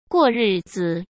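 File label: "过日子", Mandarin Chinese; chinese flashcards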